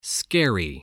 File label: "scary", voice spelling